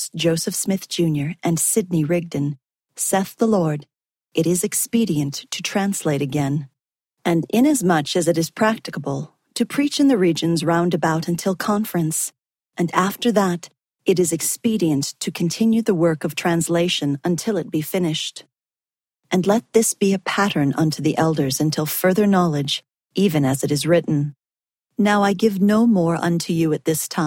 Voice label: section female voice eng; the doctrine and covenants